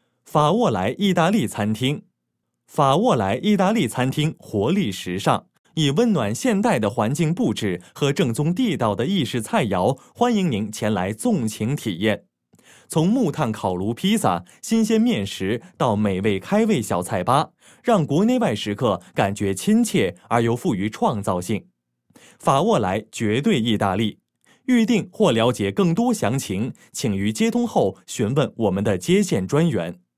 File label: IVR